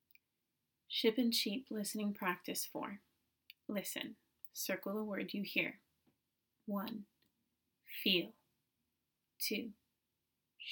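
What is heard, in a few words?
Ship and sheep listening practice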